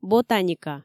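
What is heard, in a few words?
"ботаника", Komi, female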